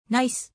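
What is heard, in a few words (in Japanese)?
"ナイス", Japanese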